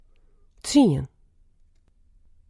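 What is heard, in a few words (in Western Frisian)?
"tsien", Frisian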